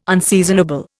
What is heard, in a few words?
"unseasonable", Indian